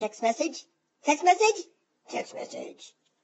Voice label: text message text message funny tone ringtone; sms ringtones